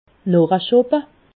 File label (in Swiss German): "noch", alsace